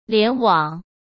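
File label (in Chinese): "联网", Mandarin Chinese; hsk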